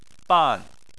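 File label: "ban" Chinese, fourth tone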